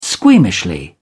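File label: "squeamishly", British English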